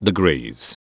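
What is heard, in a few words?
"degree", English; Taranis-Voicebot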